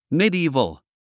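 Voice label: "medieval", English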